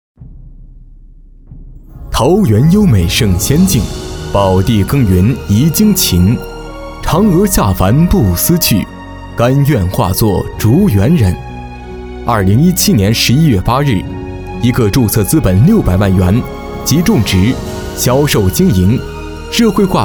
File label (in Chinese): 深沉的背景音乐 解说; 专题; 求知的欲望; 人物